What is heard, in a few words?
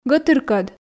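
"гӧтыркӧд", Komi, female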